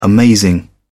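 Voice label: "amazing", English, British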